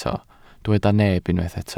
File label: "neb", Welsh